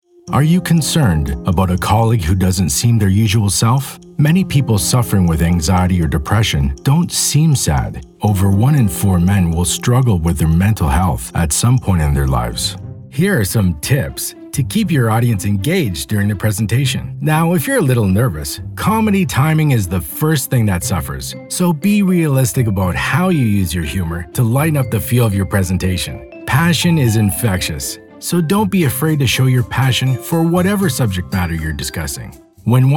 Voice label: Corporate